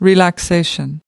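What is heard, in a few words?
"relaxation", American English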